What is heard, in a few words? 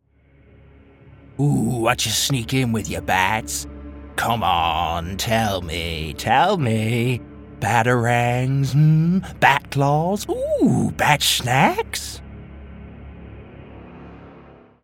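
English UK Character; GoLocalise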